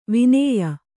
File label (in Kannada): "ವಾನೇಯ", Kannada